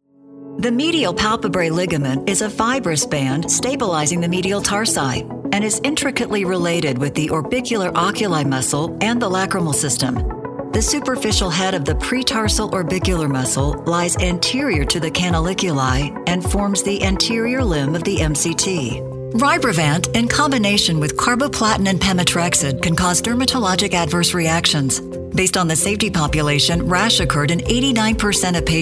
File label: Voice Over Medical Demo